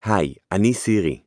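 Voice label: Hebrew, Israel; iOS 26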